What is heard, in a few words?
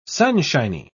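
"sunshiny", English, uk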